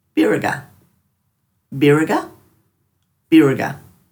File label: "biraga", Dhurga